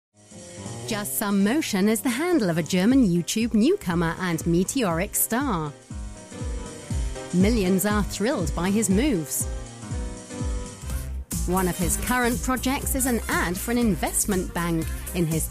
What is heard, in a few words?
VOICE ARTIST tv feature